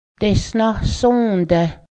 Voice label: buckle it, Cayuga; deshahsǫ́dęh; buckle it (v.)